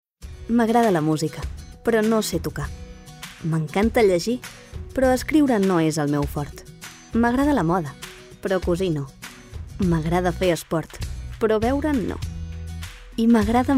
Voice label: female catalan food comercial